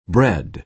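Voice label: "bread" American English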